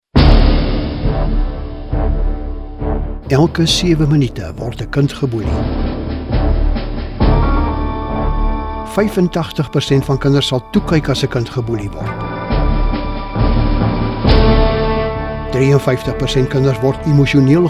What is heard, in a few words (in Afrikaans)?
boelie statistiek voorgelees met musiek